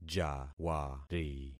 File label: "jawari", concat syllables; Affixing experiment